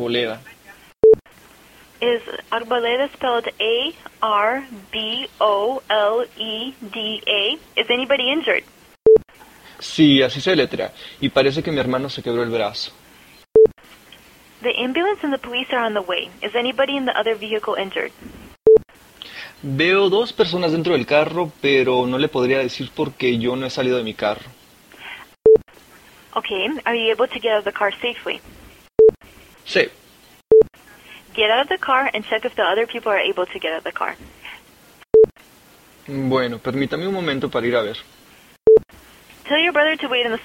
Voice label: 911 Reporting an Accident Spanish without interpretation